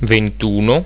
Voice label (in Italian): "ventuno", italiaans; getal